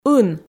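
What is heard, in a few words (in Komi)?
"ын", Komi, female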